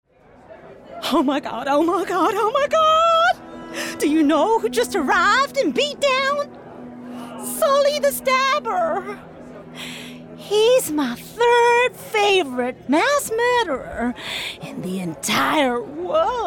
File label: Character; American Groupies